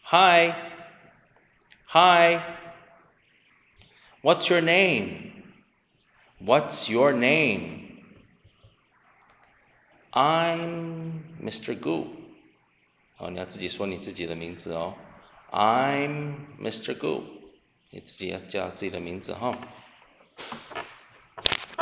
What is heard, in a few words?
conversation